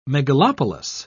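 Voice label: "megalopolis", British English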